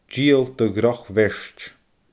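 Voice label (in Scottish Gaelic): "dìol do dhroch bheirt", Scottish Gaelic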